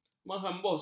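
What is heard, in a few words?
"menghembus", Malay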